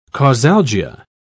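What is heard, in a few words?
"causalgia", British English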